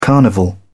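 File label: "carnival", British English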